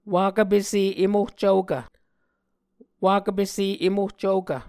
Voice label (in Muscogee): "wakv pese em ohcvokv", Muscogee